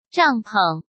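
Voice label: "帐篷", Mandarin Chinese; chinese flashcards; hsk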